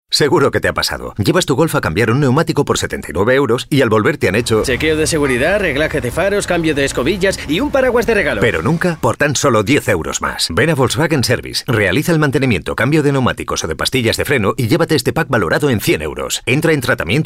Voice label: male spanish mechanic comercial; ideasonora